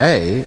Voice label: "a", male British; Alphabet

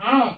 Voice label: jibjab OWWL, Lo-Fi Jibber Jabber

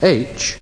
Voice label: "h", male British; Alphabet

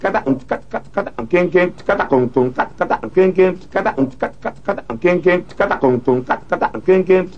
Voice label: 130 vocal Ka Ta Kin Kin, drum loops

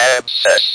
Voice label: speak and spell, "abscess"; WORDS

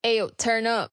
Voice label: female ayo turn up; FEMALE MISC

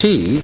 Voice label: "t", male British; Alphabet

